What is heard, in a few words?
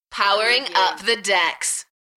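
UK female, DJ vocals; Powering up the decks; beanstalk audio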